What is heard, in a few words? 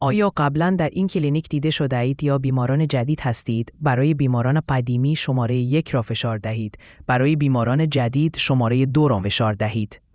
question persian